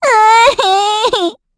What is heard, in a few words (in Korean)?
Vox Sad, kr; Estelle; Kings Raid